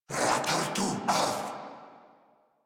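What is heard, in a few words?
Cover Me, Male, English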